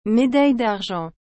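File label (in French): "médaille d’argent", French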